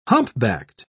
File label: "humpbacked", pronounce